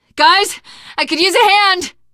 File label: help, teengirl; survivor voice